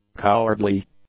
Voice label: "cowardly", English; adjectives